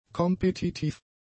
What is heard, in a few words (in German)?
"kompetitiv", German; adjectives